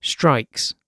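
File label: "strikes", British English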